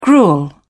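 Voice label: "gruel", British English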